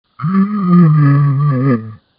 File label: Muffled